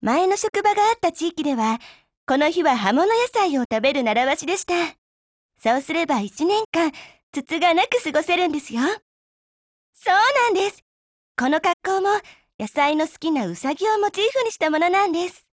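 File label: Japanese; RexZero1; NEWYEAR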